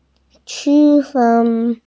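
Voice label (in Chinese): "qu1fen1", Mandarin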